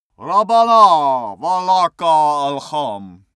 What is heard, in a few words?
male pray arabian